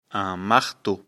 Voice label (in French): "marteau", lecture fr